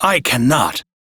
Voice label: Crew Reject, Male, en; Warframe; Railjack Crew; Arbiters Hexis